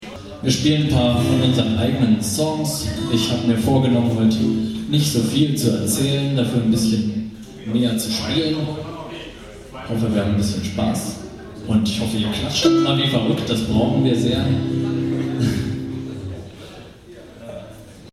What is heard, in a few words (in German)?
Ansage